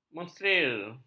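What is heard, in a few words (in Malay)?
"mensteril", Malay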